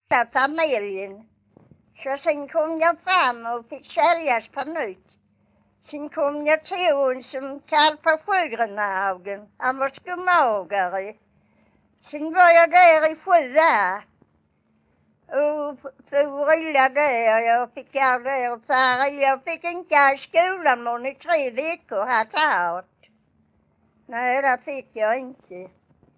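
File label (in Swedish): Vånga Skåne, dialekter; Folkminnen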